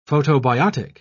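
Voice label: "photobiotic", British English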